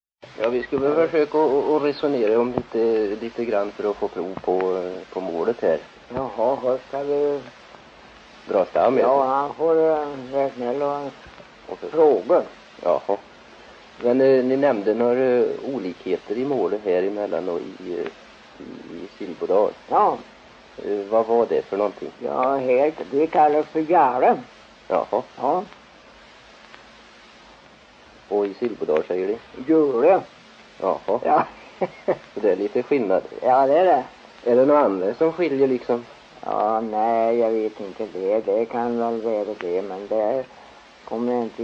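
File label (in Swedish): Sillerud Värmland, dialekter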